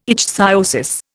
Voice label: "ichthyosis", Indian